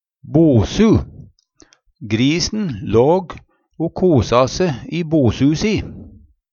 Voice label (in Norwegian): bosu, Numedalsmål; Substantiv hokjønn